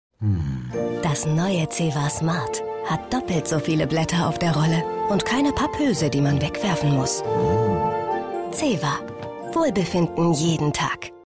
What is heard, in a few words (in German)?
TV Spot; Zewa